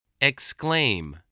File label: "exclaim", voice, english